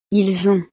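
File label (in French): "ils ont", French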